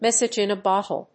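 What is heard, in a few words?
"message in a bottle", English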